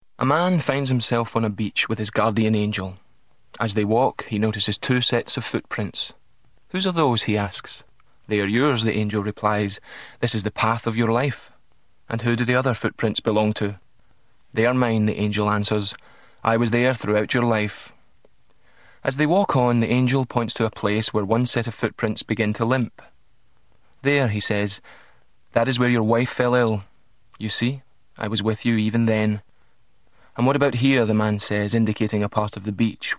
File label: radio commercial